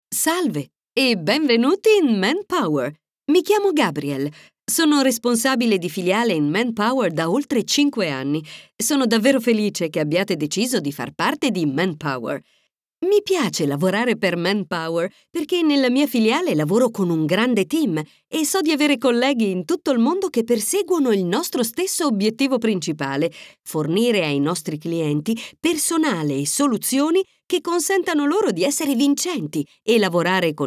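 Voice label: Italian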